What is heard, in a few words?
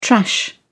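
"trash", British English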